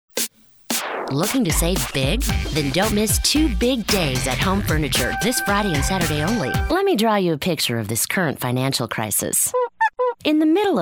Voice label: Commercial Female, Warm, Versatile, Friendly

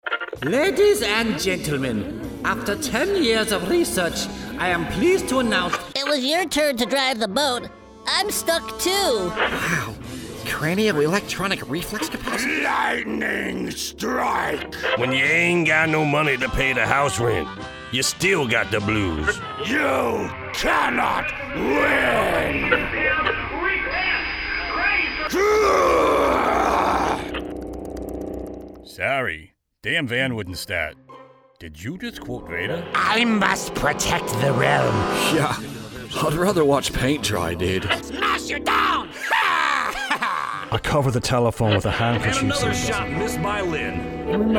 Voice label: character male

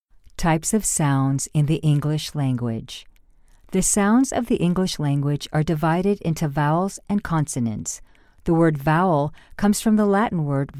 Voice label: eLearning Female

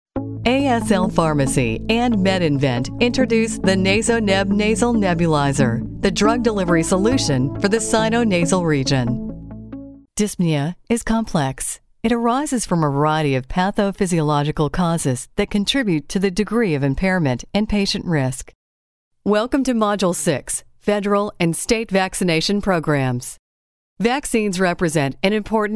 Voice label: eLearning Female

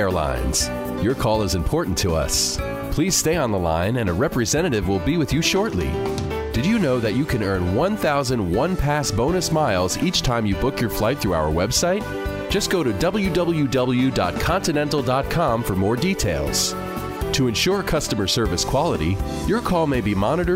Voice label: Phone Hold IVR, Male

